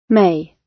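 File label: "may", British English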